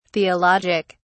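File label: "theologic", British English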